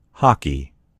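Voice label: "hockey", American English; gcompris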